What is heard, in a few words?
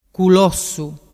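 "culossu", Vernazzese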